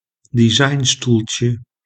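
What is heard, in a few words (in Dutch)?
"designstoeltje", Dutch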